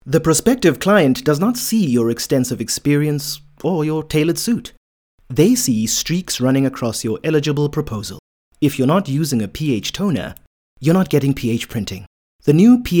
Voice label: English Conversational; OSM Talent

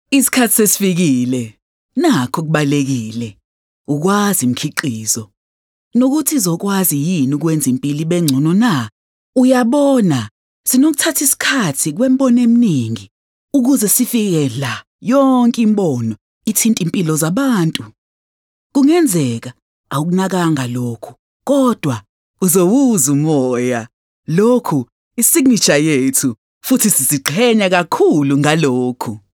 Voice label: Zulu Intimate

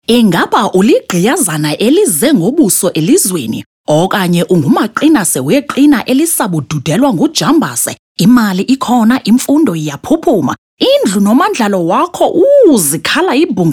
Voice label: Xhosa bright

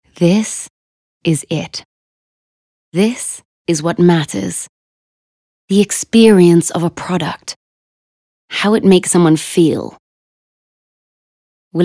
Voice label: English Dramatic

